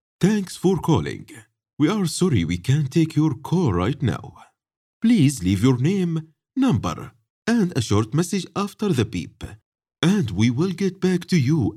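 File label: Arabic accented English, voice demos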